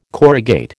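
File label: "corrugate", American English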